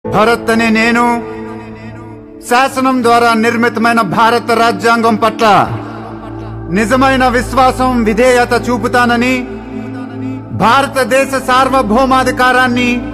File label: Dialogue Ringtone; Bharat Ane Nenu